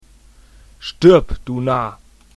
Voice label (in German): Narr